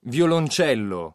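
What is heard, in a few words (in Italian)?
"violoncello", Italian word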